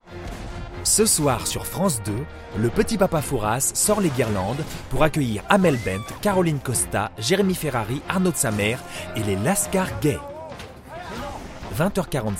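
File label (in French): medium naturel, voix off masculine; Je peux adopter tous les styles avec ma voix off masculine naturelle, posée, souriante ou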